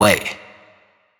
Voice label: adlib Wait wet, Raw Vocals; Adlibs

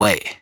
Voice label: "Wait", adlib, Dry Vocals; Raw Vocals; Adlibs

